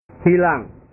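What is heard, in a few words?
"hilang", Indonesian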